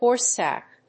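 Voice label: "Horse tack", English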